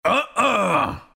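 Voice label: deny, chinese; dota2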